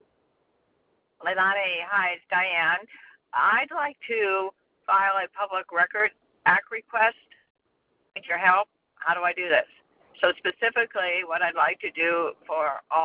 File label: Voice Message